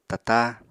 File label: "tatá", apatani